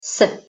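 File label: "с", Russian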